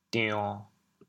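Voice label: "dion1", Teochew, chaoyang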